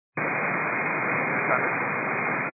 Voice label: 122.925 MHz; scanner radio